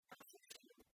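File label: "асывсянь" Komi, female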